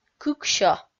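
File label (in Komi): "кыксё", Komi, female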